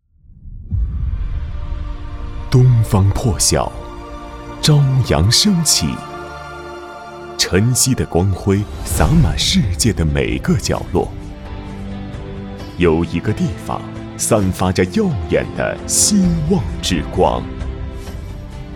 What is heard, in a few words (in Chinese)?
男 专题 深沉大气; 中央民族大学国际教育学院